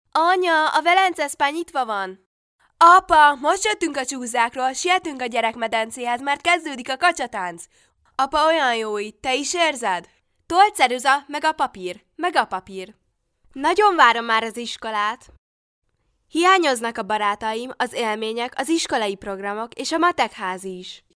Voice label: hungarian child voice over locutora infantil hungria